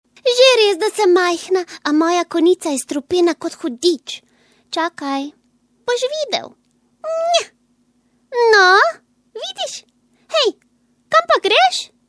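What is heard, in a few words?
slovenian female character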